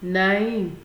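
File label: "naim", Nigerian Pidgin, female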